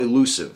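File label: "elusive", US English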